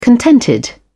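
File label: "contented", British English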